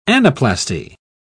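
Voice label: "anaplasty", British English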